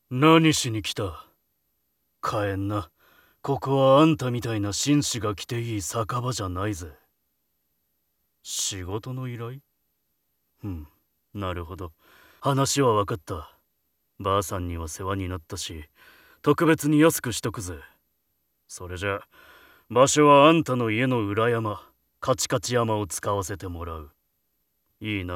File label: male